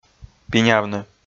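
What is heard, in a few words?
"пинявны", Komi, male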